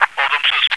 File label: negative, radio